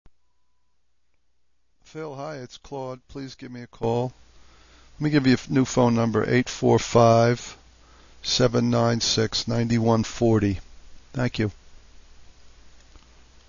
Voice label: Recording of Call; Skylook